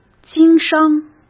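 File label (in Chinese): "经商", Mandarin Chinese; hsk; chinese-flashcards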